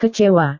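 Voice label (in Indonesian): "kecewa", Indonesian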